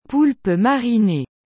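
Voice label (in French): "Poulpe marine", French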